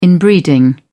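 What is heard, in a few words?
"inbreeding", British English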